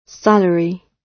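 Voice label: "salary", British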